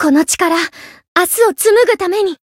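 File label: variable chant, Japanese; saki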